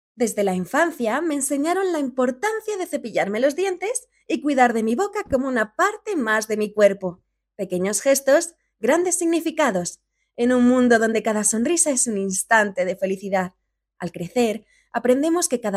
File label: locutora spanish voiceover